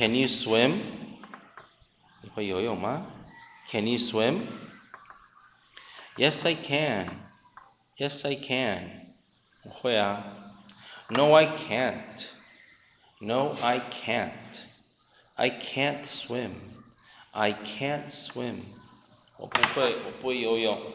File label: conversation